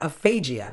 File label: "aphagia", Medical English; əˈfeɪ.dʒɪə; uh-FAI-jeer